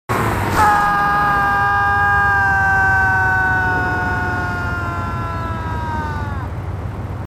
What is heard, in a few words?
marines, marine timid; h2dialogue